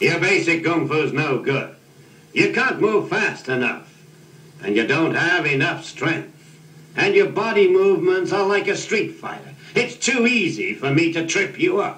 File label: long monologue